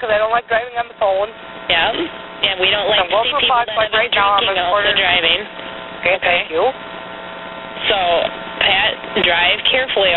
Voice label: drunk cellphone call